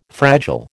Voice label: "fragile", American